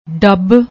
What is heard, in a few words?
"dabb", Punjabi; ਡੱਬ